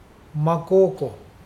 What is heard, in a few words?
hungry, Mokilese